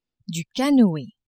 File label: "canoe", French